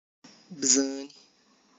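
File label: tlacochahuaya, Zapotec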